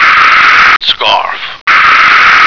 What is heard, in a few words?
"scarf", American